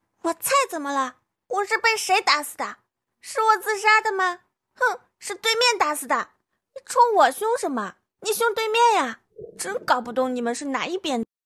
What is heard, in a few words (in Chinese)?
搞笑奶凶萝莉声